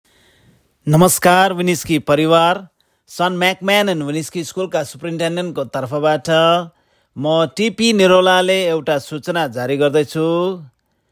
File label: nepali